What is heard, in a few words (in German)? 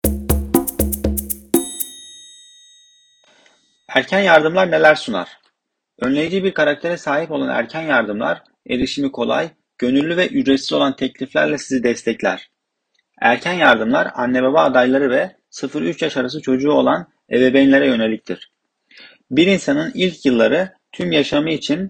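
türkisch allgemein; tuerkisch allgemein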